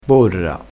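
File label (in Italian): "borra", vocabolario dialettale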